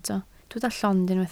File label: "llond", Welsh